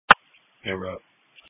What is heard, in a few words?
Police Patrol 1 (Dispatch)